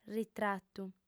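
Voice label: "ritratu", Sardinian, female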